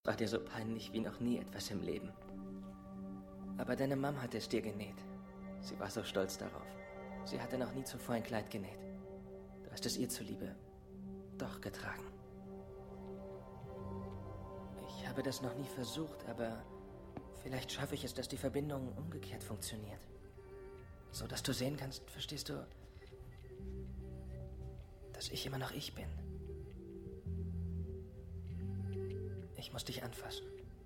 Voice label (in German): german